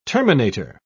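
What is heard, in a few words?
"terminator", British English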